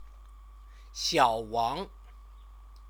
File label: "xiaowang", Chinese; 小 王; proper noun